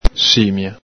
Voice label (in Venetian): "simia", Veneto